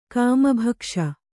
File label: "ಕಾಮಭಕ್ಷ", Kannada